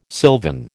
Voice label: "sylvan", American